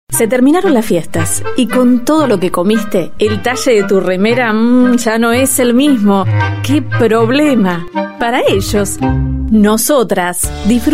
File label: Spanish European Voice; Female